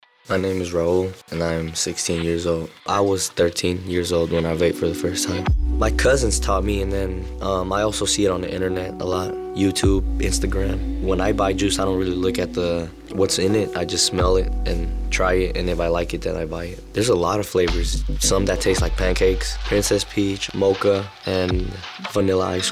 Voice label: Teen Testimonial; E-cigarettes